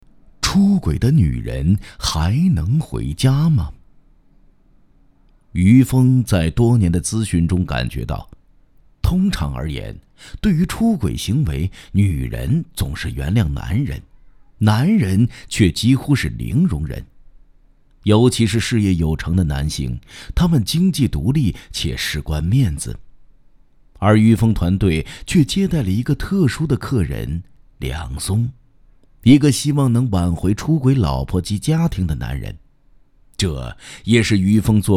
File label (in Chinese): 男 言情类小说; 出轨的女人